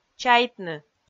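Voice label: "чайтны", Komi, female; kpv audio dict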